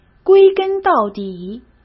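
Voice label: "归根到底", Mandarin Chinese; hsk; chinese-flashcards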